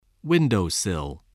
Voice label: "windowsill", voice, english

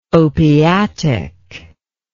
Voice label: "opiatic", English

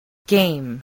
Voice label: "game", voice, English

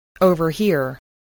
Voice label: "overhear", English voice

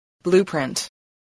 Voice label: "blueprint", spelling

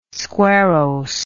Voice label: "squarrose", English

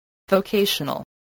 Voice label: "vocational", English

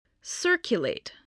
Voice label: "circulate", English, voice

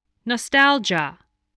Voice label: "nostalgia", voice, spelling

